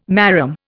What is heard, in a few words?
"marram", Indian